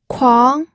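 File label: "kuang", Mandarin Chinese, second tone; pinyin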